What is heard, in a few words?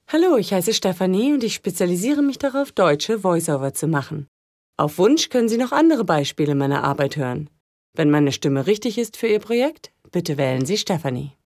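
German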